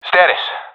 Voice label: request report, professional epic; vo; Counter Strike Model Pack; CS:GO